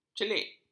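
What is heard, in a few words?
"celik", Malay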